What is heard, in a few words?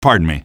pardon me, male; Half-Life 2